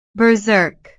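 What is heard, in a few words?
"berserk", English; furioso, frenético, enloquecido; palabras con historias fascinantes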